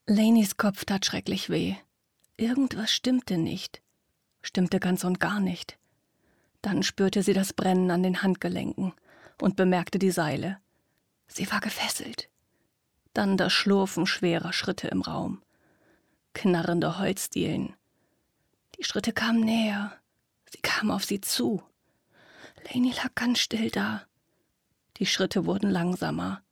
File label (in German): erzaehlung